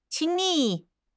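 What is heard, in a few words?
"亲密", Mandarin Chinese; HSK6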